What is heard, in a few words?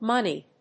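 "monied", English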